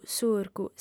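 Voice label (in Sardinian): "surgu", Sardinian, female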